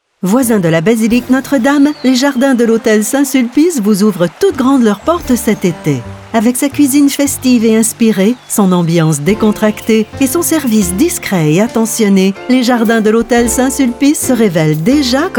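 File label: Canadian French, female, narration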